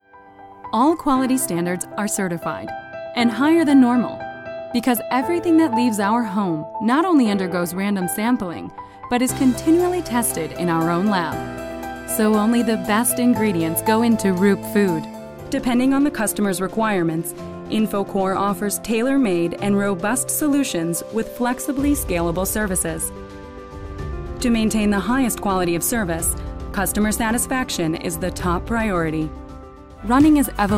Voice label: Corporate Narration

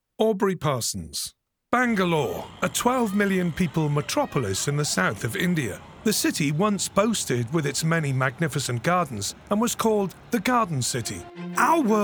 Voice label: Corporate Narration Presentations

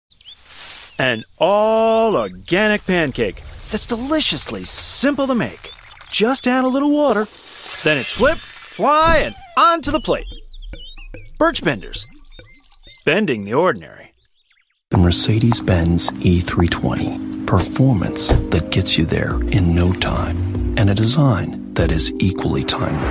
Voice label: Commercial VO

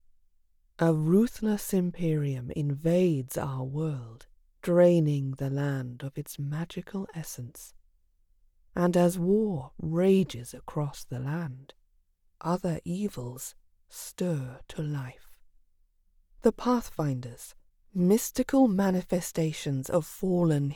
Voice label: Narrator

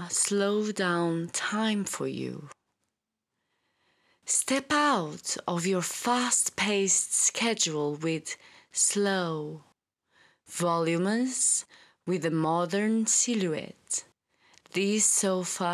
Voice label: Furniture Ad

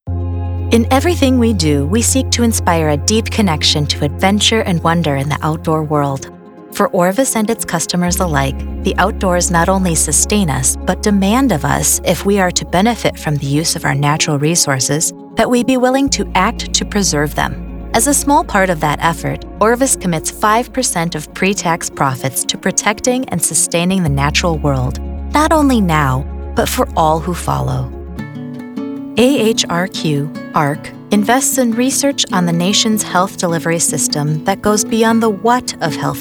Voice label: Corporate

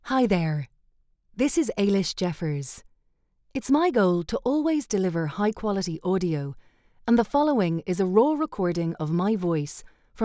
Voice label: Raw Studio Sample

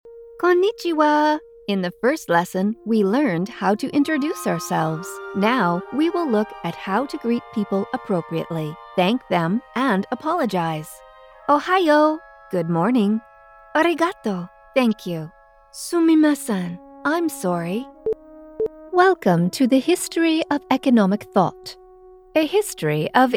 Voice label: Elearning NA UK

